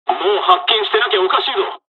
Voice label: dialog, dialogs japanese; us midway; War Thunder